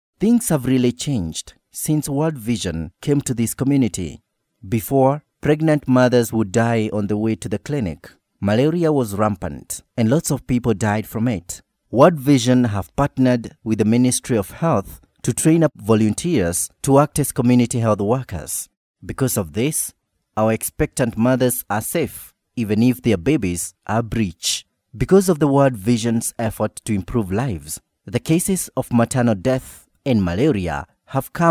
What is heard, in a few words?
male african voice over character, african english